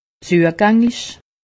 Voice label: "zuganglich", alsace; medecine

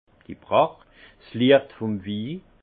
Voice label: "pracht", alsace; vin; éclat du vin

